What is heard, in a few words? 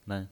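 "men", pinyin voice, Mandarin Chinese, neutral tone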